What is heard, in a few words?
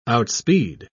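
"outspeed", British English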